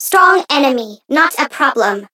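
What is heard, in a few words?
Voice encounter fear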